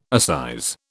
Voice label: "assize", British